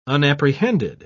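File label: "unapprehended", British English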